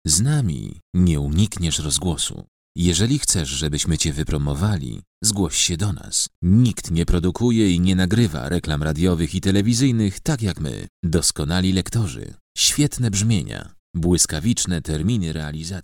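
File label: Polish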